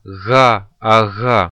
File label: voiced velar fricative, phoneme